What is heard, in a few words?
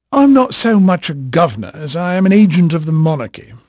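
English male, voice; Pirates Of The Caribbean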